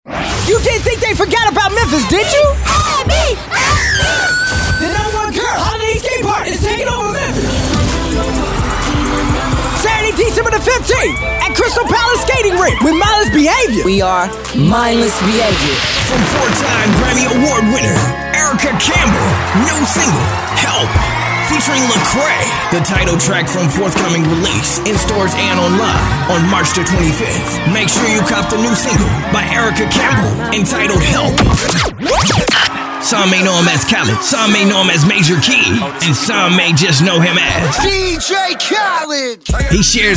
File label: CONCERT RADIO AD